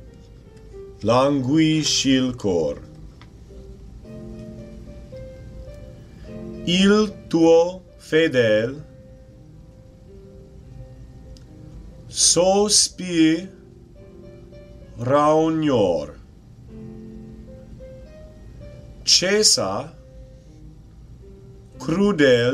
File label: caro mio ben pronunciation